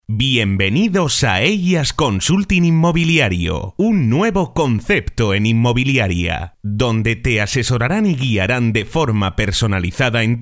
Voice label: locutor

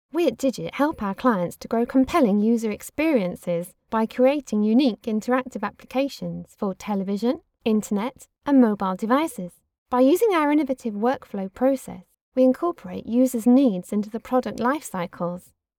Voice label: locutora inglesa; Speaker Inglese

